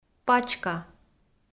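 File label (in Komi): "пачка", Komi, female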